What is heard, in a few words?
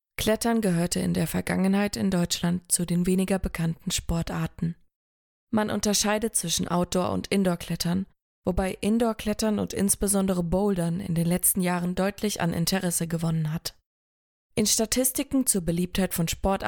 German Voice Over